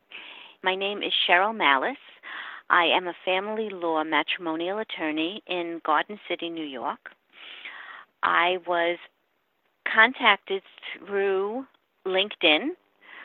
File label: Testimonial; Impact Makers Radio